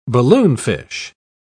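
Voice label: "balloonfish", British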